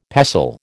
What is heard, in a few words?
"pestle", American